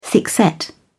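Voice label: "thickset", British English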